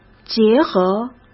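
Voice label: "结合", Mandarin Chinese; hsk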